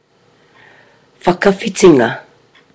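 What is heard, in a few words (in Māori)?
"whakawhitinga", Māori word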